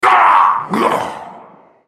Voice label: dying, en US; GatekeeperGalio; Character Voices - English

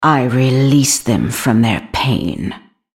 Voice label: attack, en US; Vayne

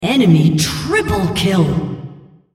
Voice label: female, triple kill

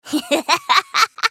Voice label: spellcast effort; Annie

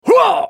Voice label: effort, en US; Trundle

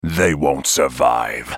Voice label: move, en US; Talon

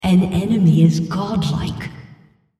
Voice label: female Killing Spree

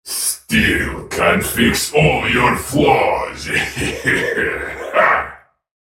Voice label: taunt, en US; Viktor; Character Voices - English

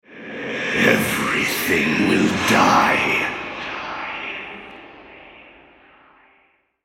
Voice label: Altar Capture, Male

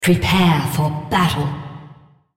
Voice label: female Welcome, en US; Odin

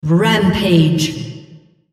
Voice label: female On Killing Spree

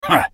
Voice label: effort, en US; Twisted Fate

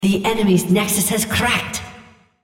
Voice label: female Nexus Enemy; Odin

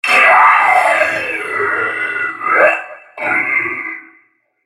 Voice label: dying, en US; Vel'Koz

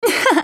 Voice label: ultimate effort; AhriSkin04

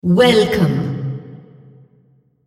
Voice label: female, welcome

